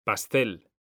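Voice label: "pastel", Spanish; mekanta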